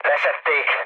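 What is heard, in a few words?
Hungarian aircraft destroyed mood low, speech; War Thunder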